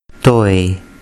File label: "toy", urdu